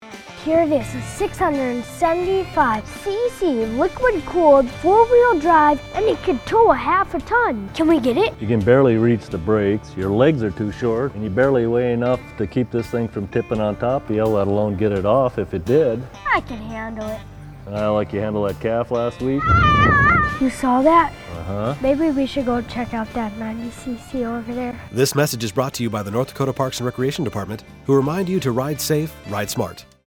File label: Radio PSA